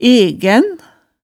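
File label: "egæn", Hemsedal dialect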